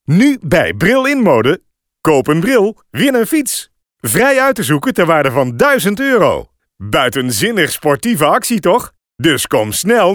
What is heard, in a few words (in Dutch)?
radiospot; koop n bril win n fiets